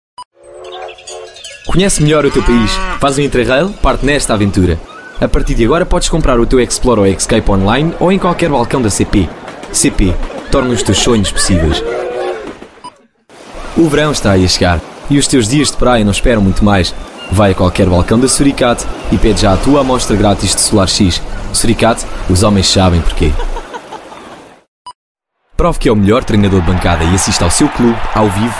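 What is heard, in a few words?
Portuguese, male, various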